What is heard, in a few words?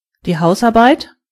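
chores, German voices words; gcompris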